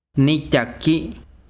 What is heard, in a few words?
besiro corteza, chiquitano